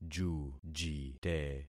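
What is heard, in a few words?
"jujite", concatenated syllables; Affixing experiment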